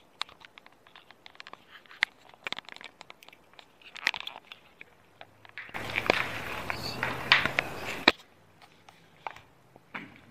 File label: messing with the recorder then unknown voice; Ohio State Reformatory; EVP